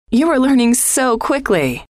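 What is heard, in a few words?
you Are Learning So Quickly, female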